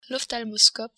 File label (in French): "ophthalmoscope", french